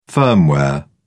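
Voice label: "firmware", British English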